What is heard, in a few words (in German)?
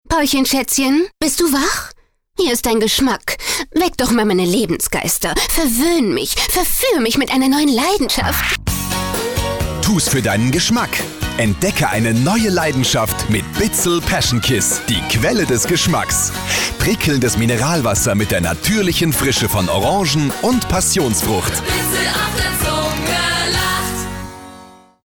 Dynamisch